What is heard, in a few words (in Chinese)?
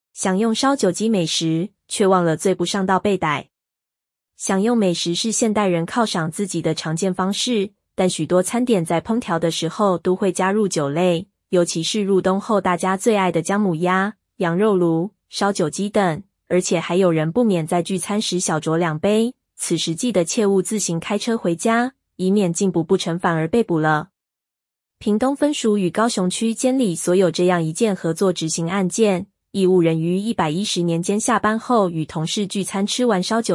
ai語音-女; 享用燒酒雞美食-卻忘了醉不上道被逮